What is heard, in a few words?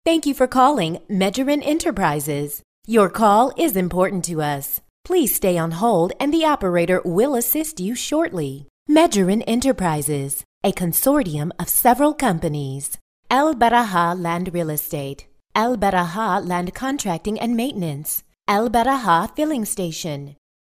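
American IVR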